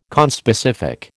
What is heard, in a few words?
"conspecific", American